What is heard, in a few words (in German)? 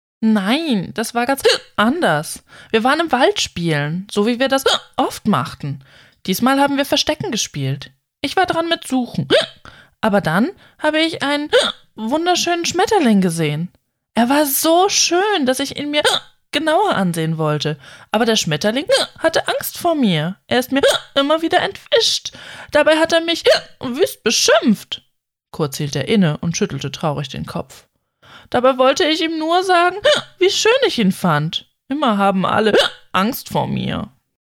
Kinderbuch, hoerbuchsprecherinnen